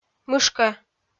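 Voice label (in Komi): "мышкӧ", Komi, female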